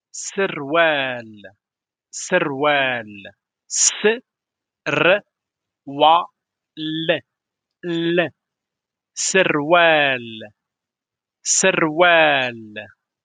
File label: trousers in moroccan arabic darija vocabulary